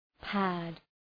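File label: "pad", English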